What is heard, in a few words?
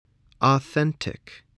"authentic", english